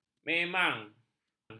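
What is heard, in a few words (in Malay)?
"memang", Malay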